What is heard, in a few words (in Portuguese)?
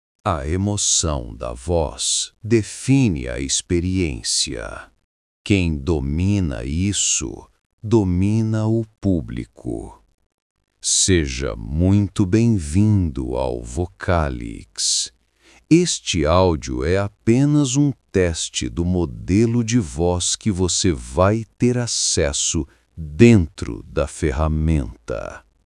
Narração Assustadora